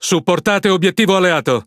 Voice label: It Speech Quick Commands Ally Ship; World Of Warships